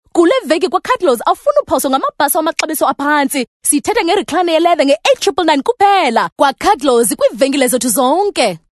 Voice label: Xhosa Hardsell